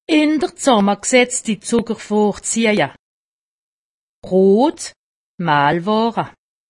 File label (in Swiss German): "brot", alsace; medecine